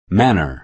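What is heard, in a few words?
"manner", American English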